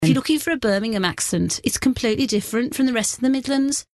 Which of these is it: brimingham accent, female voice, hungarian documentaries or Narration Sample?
brimingham accent